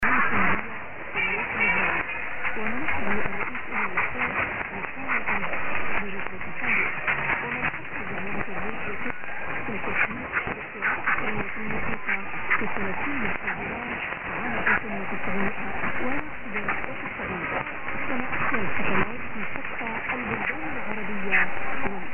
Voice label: unID Arabic